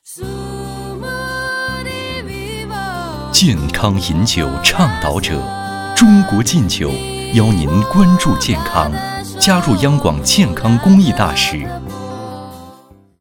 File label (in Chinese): 男 片花; 央广片花健康公益大使